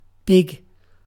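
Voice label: "big", British English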